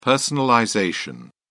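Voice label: "personalization", British English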